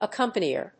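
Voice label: "accompanier", English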